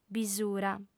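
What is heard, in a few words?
"bisura", female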